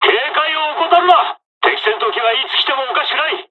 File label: dialog, dialogs japanese; War Thunder